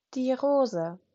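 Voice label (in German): "Rose", German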